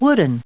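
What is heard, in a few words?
"wooden", English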